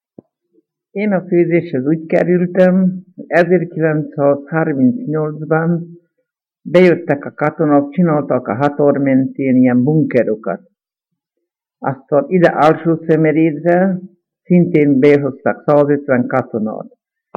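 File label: dialect collection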